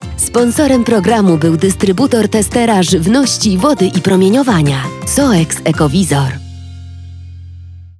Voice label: Female VO Commercial